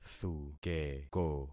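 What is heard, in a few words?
"thukeko", concatenated syllables; Affixing experiment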